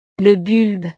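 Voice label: "le bulbe", French; verduras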